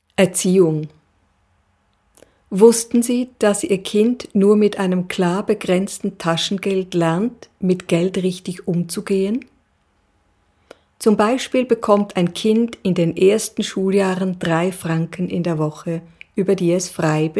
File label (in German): deutsch